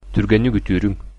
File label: get well soon, sakha